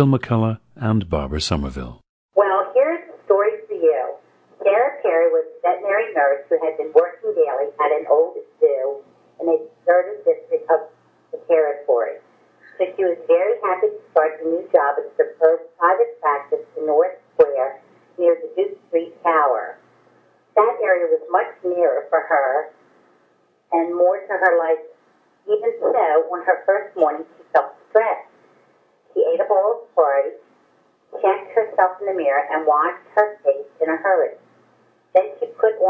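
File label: maryland, International Dialects of English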